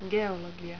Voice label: "geologia", Finnish

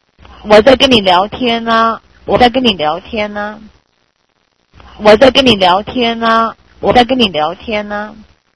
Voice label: chat

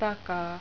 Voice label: "takaa", Finnish; 'from behind'

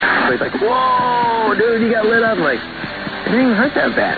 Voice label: pain

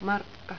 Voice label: "markka", Finnish

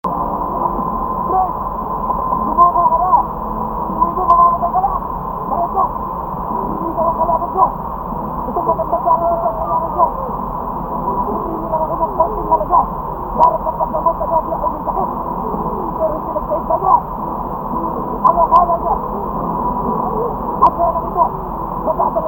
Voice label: sermon; inspired by an old drunkard giving free beer but not paying for it; SERMON - for all those who have fucked and hated bitches in their lives

